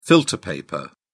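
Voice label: "filter paper", British English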